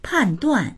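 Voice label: "pan4duan4", Mandarin Chinese; pànduàn; 判断; 判断（する）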